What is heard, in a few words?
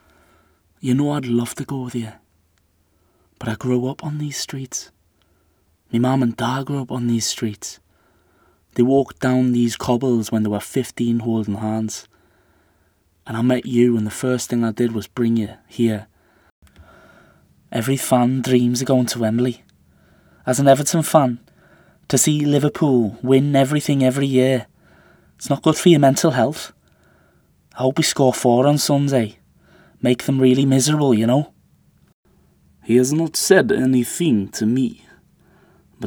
Accent, Confident, Versatile, Natural